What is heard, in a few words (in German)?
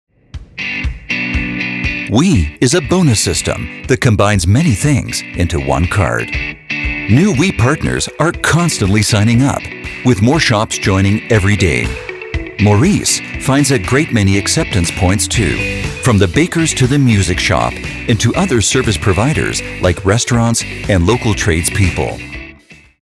erklaerfilm; wee app